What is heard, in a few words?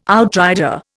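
"outrider", Indian English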